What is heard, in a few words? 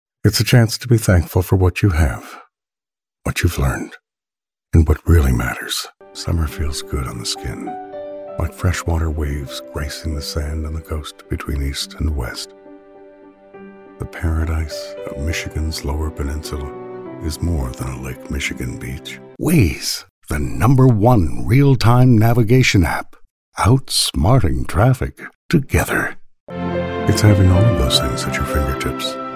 US male; lektoring pro